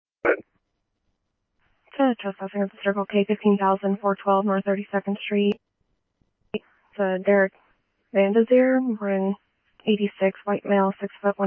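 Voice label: scanner, Phoenix Police Department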